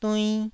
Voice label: "duinn1", taiwanese syllables